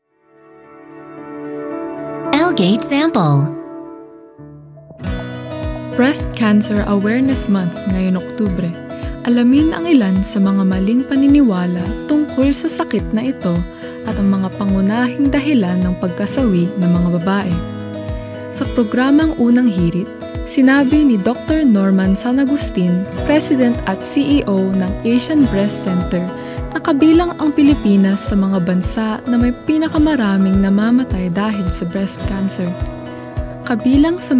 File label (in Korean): 필리핀여 차분한 톤